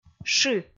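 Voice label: "шы", Komi, female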